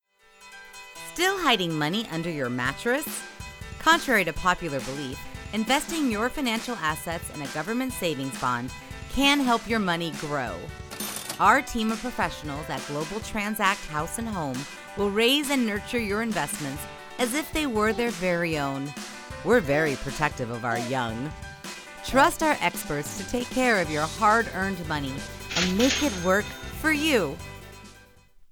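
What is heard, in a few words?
investment commercial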